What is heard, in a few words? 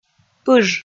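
"пыж", Komi, female; kpv audio dict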